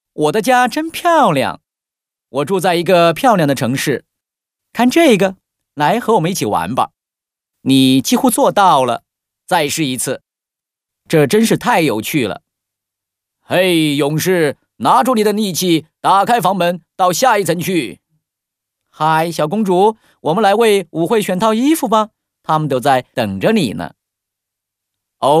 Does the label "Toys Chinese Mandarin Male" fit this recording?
Yes